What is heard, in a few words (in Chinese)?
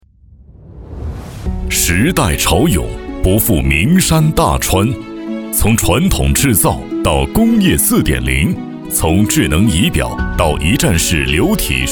男, 专题 企业 科技感